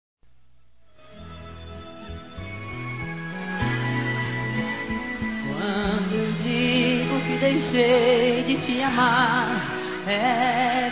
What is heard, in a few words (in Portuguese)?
ANIV. VOVÓ-F, Telemensagens; Aniversário Infantil